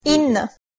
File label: "ina", Armenian